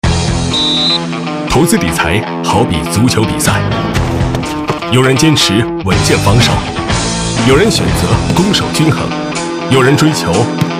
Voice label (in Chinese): 男 品质 tvc; 西部证券